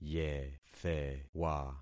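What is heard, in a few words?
"yethewa", concatenated syllables; Affixing experiment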